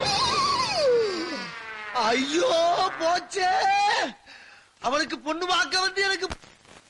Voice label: dialogue comedy tamil; vadivelu